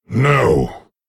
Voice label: Male Lich ERROR GENERIC